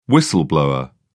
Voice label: "whistle-blower", uk